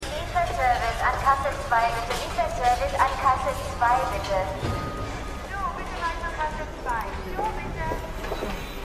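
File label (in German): Baumarkt Durchsagen; The Wire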